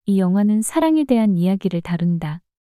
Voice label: 문장 여자

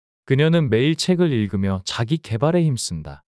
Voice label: 문장 남자; 그녀는 매일 책을 읽으며 자기 계발에 힘쓴다

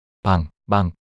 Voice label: "방망" 낱소리 남자

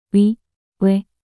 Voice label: "위외" 낱소리 여자

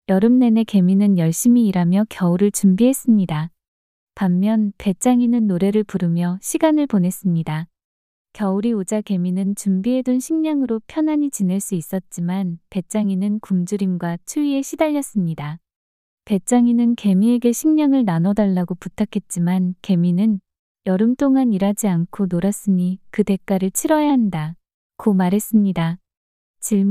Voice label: 이야기 여자; 개미와 배짱이

